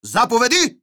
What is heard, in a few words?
neutral